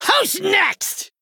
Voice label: Male Goblin Attack

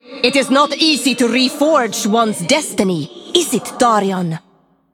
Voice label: Female Iron Vrykul Emote Start; Darion Mograine

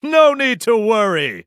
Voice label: Male Human Attack

